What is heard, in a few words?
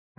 122 925MHz, scanner radio